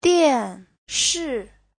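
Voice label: "diànshì", Mandarin Chinese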